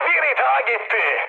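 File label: player killed enemy, mood high, Turkish; speech; War Thunder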